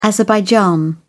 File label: SPOKEN Azerbaijan